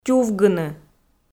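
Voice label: "тювгыны", Komi, female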